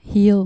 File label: "heal", dutch